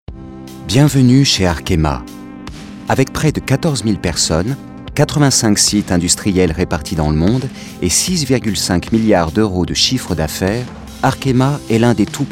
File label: Corporate